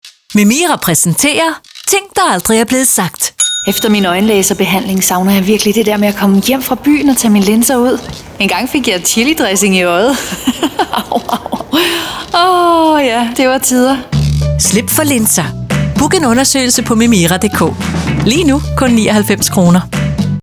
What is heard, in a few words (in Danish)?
radiospot; Memira; A-linser